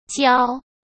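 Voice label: "焦", Mandarin Chinese; hsk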